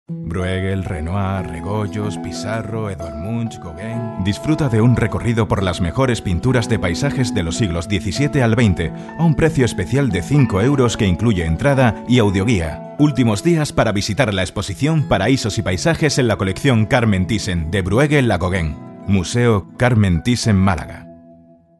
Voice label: corporate

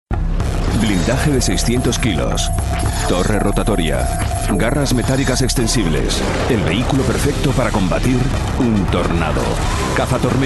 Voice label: promos

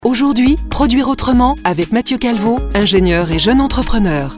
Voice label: hard sell

